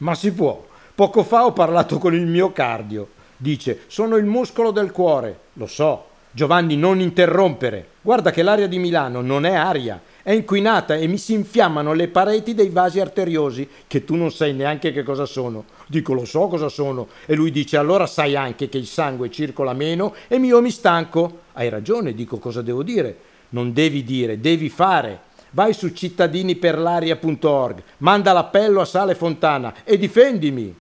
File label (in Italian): spot miocardio; Giovanni Storti